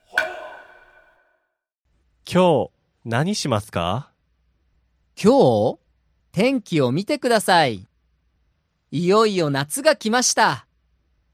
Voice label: dialog; JapanesePod101; Beginner Season 1